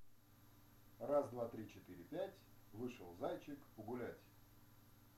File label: 1 meter from mic